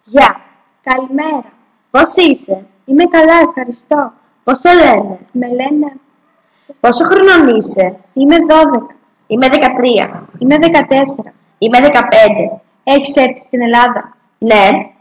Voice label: Greek